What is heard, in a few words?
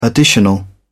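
"additional", English, British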